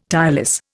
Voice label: "tireless", Indian

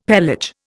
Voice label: "pelage", Indian; Dictionary

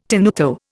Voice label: "tenuto", Indian

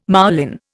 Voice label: "moulin", Indian

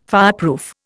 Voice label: "fireproof", Indian

